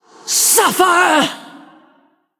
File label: Female Ghost Attack; en